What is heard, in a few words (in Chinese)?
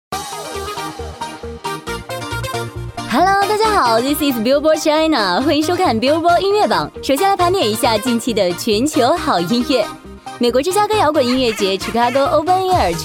女-主持-活力自然-音乐打榜节目